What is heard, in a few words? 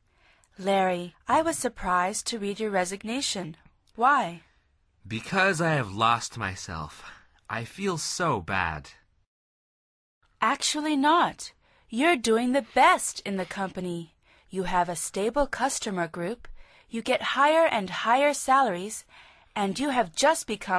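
dialog, Conversación en Ingles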